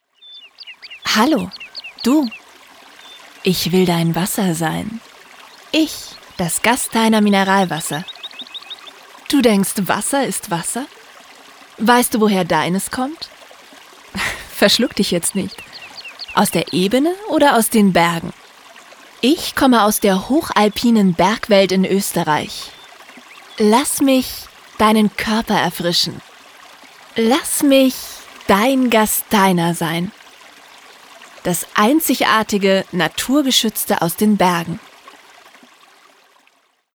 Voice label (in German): Werbung sinnlich; Werbung 2 (sinnlich)